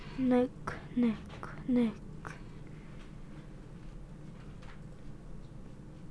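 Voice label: "neck", spoken word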